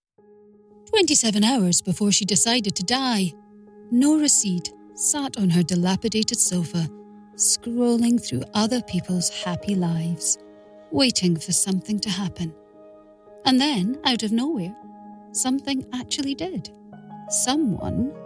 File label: Audiobook Sample